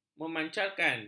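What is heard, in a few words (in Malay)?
"memancarkan", Malay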